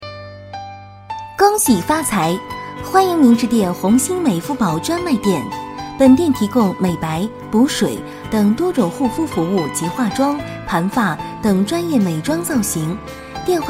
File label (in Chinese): 女 样音